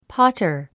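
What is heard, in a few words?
"potter", English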